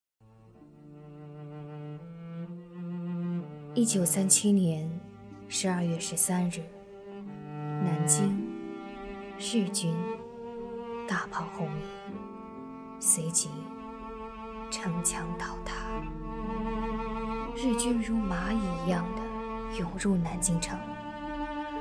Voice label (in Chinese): 旁白; 南京大屠杀旁白